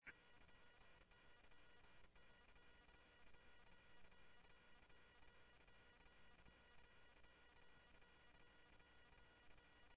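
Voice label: Mesa Police Department, scanner audio; Mesa Simulcast; MESA PD 3 Red Mt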